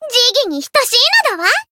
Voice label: win, jp; thillelille